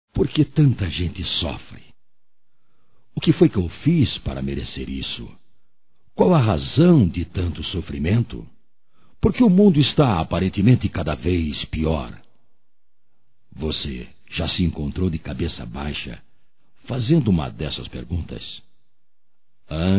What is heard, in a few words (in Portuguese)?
Força e Otimismo Masculino; Telemensagens 2017